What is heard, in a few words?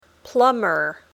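"plumber", English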